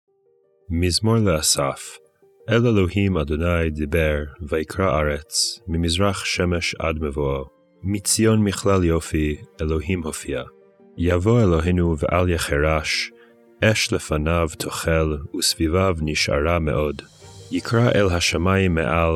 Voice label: poetry and prayer psalm hebrew